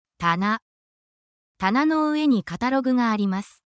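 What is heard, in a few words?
Japanese word, essential Japanese phrases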